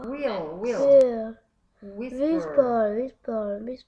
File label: whisper